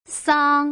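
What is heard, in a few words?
"sang", Mandarin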